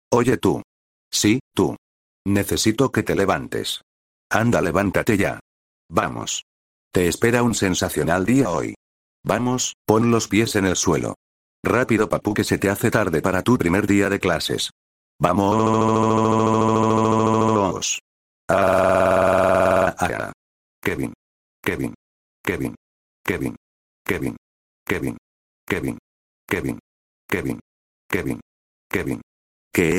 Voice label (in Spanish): ALARMA LOQUENDO